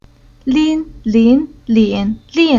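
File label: "Lin", Chinese syllable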